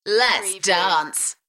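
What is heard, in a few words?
British female Lets dance; beanstalk audio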